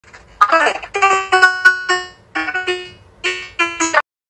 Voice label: laggy voice

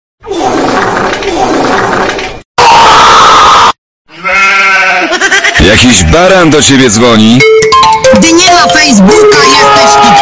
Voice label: polish brainfart

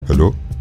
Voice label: hello african

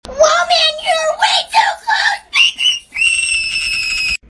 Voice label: woman you're way too close; pepper spray

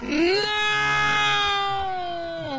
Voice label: naaaaaaaaaaaaaaaaaoooo; sharivan x

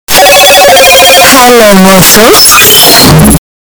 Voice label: hello moto bass boosted

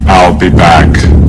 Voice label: bass boosted; ill be back; terminator

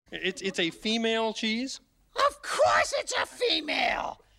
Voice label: female cheese

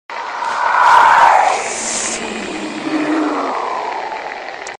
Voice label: scary voice; i see you

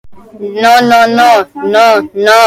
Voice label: funny no

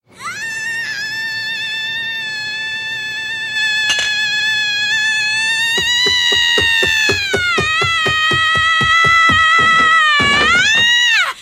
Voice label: yells loudly; ranma 1 2; akane

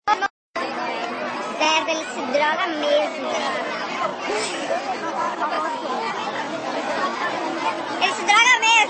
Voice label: pitch shifted

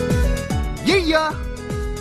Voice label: real bad voice acting

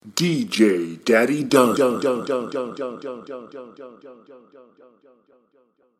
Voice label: echo

